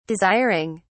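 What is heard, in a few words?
"desiring", British English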